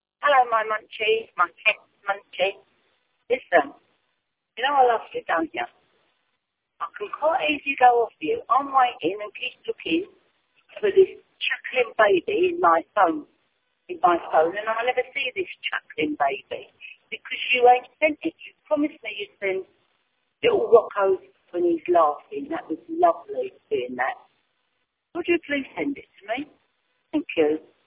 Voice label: voicemail